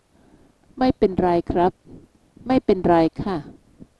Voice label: "mai pen rai", Thai